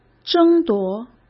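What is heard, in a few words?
"争夺", Mandarin Chinese; hsk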